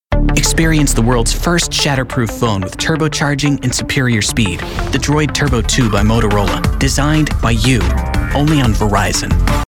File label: nerdy smart knowledgeable